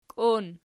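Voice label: "kion" nominative, Archi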